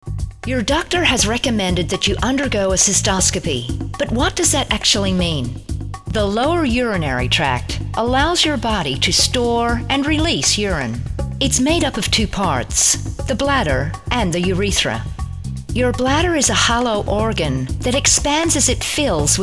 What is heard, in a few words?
usa medical